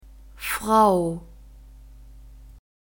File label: "frau", German; diphthongs